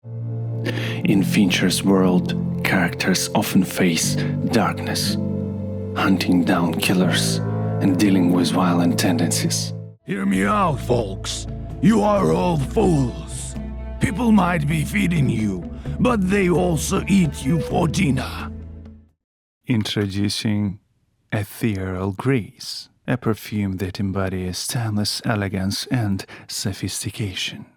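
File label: Russian-Ukrainian, male, English, accented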